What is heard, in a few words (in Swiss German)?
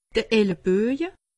"elleboje", alsace; medecine